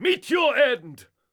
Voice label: Melee Miniboss Mid Combat Line